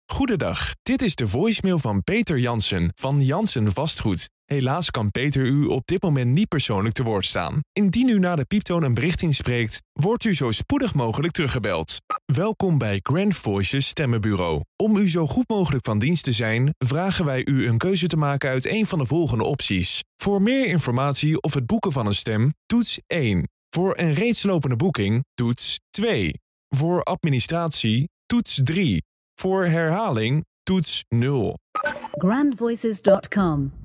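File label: DUT M, Dutch male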